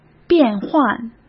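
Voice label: "变换", Mandarin; chinese-flashcards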